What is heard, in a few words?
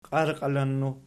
"qiarqalinnu", Archi